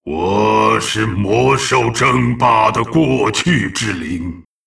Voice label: Hero lich pissed, zh

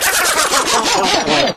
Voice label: vo die

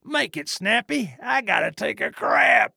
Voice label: trader male dislike greeting

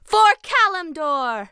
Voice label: Dryad war cry; 暗夜精灵

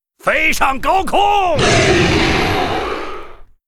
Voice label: Gryphon rider yes zh

